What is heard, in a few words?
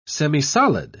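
"semisolid", English, British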